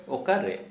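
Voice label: where, Santali, male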